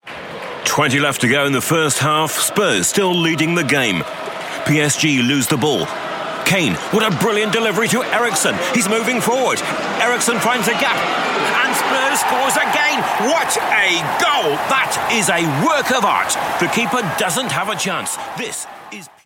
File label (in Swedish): Brittisk manlig sportkommentator